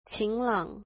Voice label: "sunny", mandarin